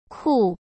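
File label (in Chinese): "酷", Mandarin Chinese; hsk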